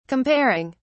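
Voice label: "comparing", British English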